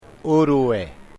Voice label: uitoto boy niño

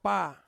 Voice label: "pa", Ansus; rice

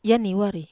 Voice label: "aniwar", Wamesa

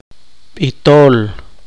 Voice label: poqomchi; formador

